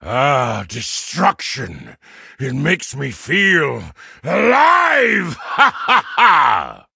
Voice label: levelup, en; cookie run

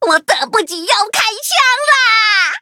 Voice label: battle zh-hant; cookie run

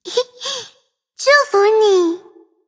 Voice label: loading zh-hant; cookie run

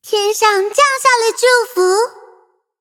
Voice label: levelup zh-hant; cookierunwiki

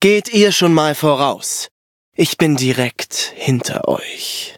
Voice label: battle, de; Cookie Run

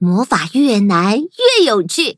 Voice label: loading, zh-hant; Cookie Run

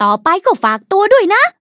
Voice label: level up, th; Cookie Run

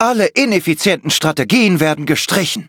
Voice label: defeat, de; Cookie Run

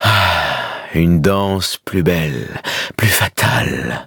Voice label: levelup, fr; cookie run

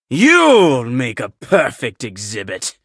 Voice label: battle en; cookie run

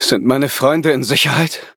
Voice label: victory, de; Cookie Run

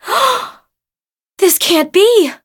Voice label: defeat, en

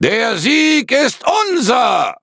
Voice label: victory, de; Cookie Run

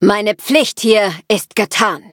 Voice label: victory, de; Cookie Run

